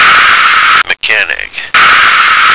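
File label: "mechanic", American English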